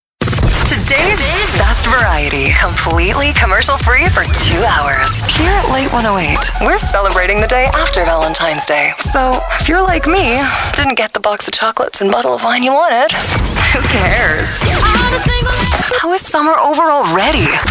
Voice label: radio female, ac; radio imaging